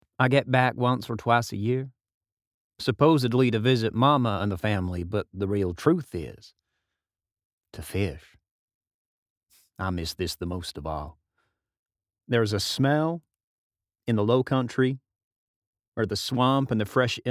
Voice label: Southern US